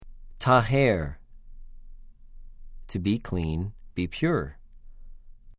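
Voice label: "טָהֵר", Hebrew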